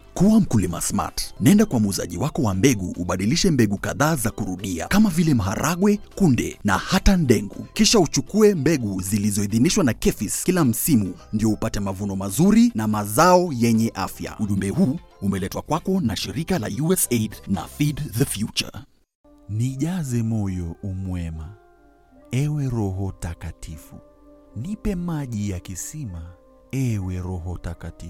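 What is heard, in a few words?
Swedish, General; GoLocalise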